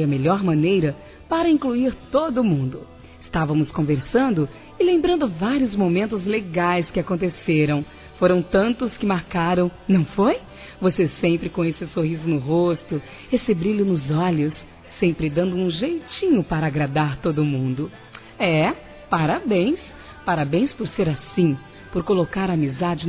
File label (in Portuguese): NEUTRO TURMA F, Telemensagens Aniversários; Aniversários diversos; fem